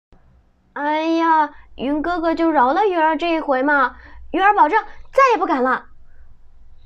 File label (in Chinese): 日常戏感练习撒娇